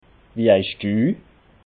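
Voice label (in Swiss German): "wie heisch du", Alsatian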